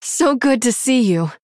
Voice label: Female Human Greetings

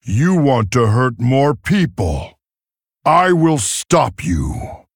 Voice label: Male Tauren; Guff

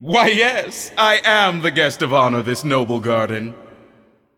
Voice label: Male Void Elf Emote happy Noblegarden

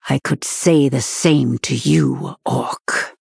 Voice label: Female Night Elf; Tyrande; Malfurion Mission 8 Victory

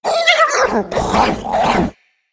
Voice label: Female Beast Play

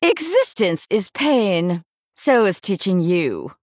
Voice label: Female Human Play

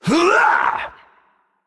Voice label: Male Naga Attack

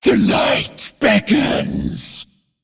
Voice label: Female Dreadlord Play

